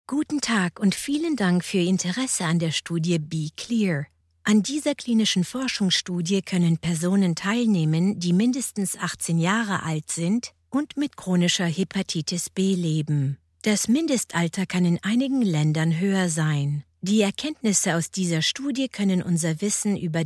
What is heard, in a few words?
German IVR